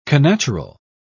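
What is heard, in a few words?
"connatural", British English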